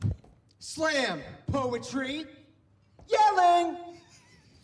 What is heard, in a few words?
slam poetry yelling